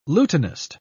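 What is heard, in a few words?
"lutenist", British English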